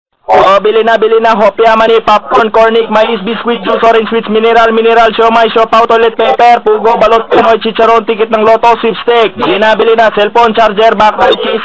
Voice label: bus vendor